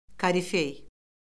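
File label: "korifey", Azerbaijani; Orfoqrafiya Lüğəti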